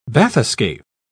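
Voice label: "bathyscaph", British English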